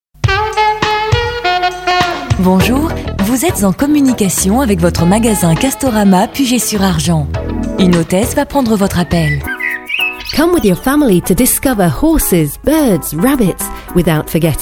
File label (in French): telephonie; ab studio